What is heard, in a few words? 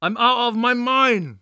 voice Angry